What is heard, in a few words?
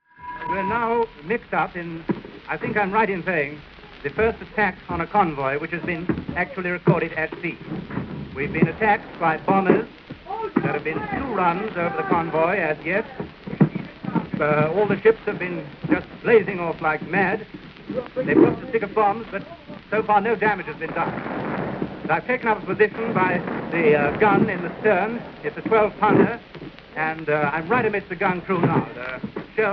Radio News Broadcast, BBC; Old Time Radio; World War II; German Attack On British Convoy